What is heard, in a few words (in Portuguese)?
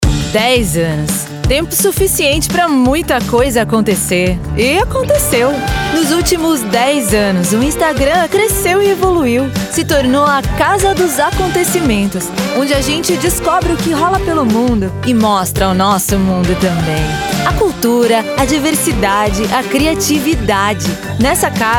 Locucao; CasaInstagram